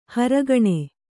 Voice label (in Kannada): "ಹೊರಗಣ", kannada